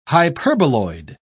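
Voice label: "hyperboloid", British English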